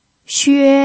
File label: "xue1", pinyin